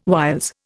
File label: "whiles", Indian